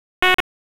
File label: Police Northeast District Tac 1, p25